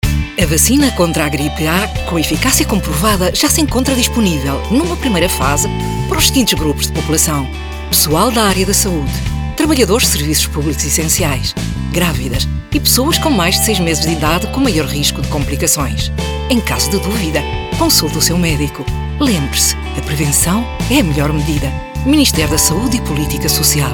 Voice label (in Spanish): PORTUGUES